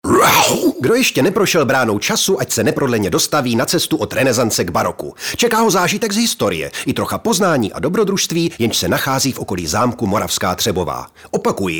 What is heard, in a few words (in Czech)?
Reklama; Moravska Trebova